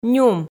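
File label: "нюм", Komi, female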